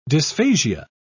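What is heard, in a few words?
"dysphasia", British English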